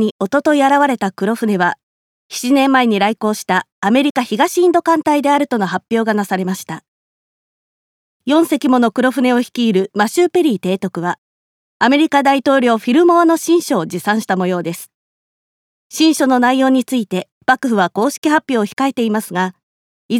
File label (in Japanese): ニュース